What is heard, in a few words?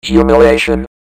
"humiliation", robot; Announcer